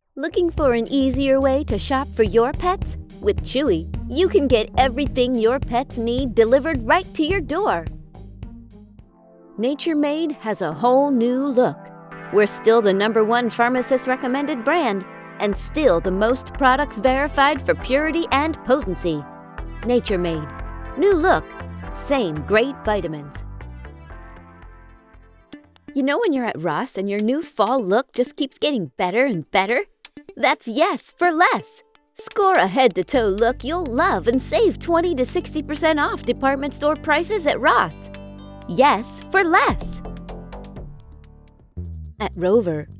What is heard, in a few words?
COMMERCIAL